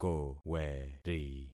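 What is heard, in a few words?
"koweri", concatenated syllables; Affixing experiment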